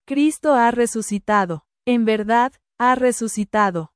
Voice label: Truly He Is Risen Spanish; ¡Cristo ha resucitado! ¡En verdad, ha resucitado!; THE PASCHAL TROPARION; Great and Holy Pascha